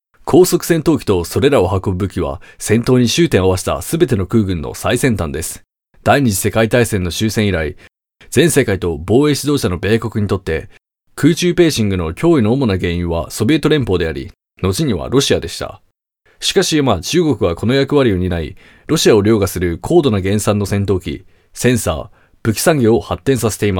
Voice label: Japanese, Male